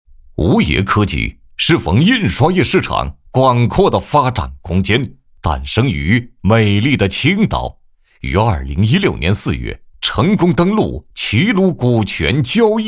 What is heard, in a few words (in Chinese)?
男 企业宣传 宣传片配音; 吾爷科技